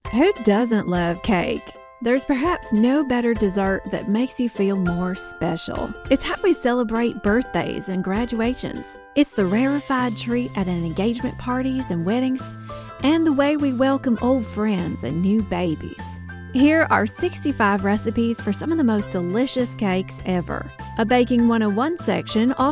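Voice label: American English, Commercial; voice demos; GoLocalise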